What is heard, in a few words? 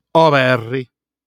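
"Oberri", Sardinian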